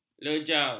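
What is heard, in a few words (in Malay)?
"lejar", Malay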